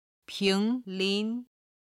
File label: "ping2 lin2", Chinese